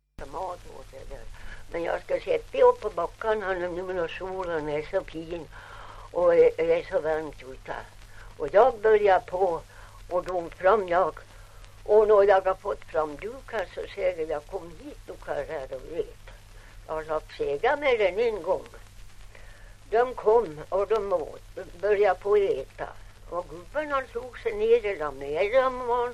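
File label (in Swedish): Ovansjö Gästrikland, Svenska sagor, dialekter; Folkminnen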